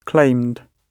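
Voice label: "claimed", British English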